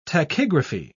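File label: "tachygraphy", British English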